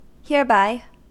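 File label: "hereby", US English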